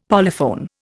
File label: "polyphone", Indian; Dictionary